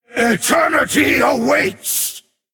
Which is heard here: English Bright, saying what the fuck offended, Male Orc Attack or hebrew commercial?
Male Orc Attack